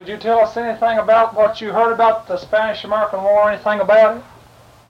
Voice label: Oral history with 81 year old male Jackson Kentucky, american english dialect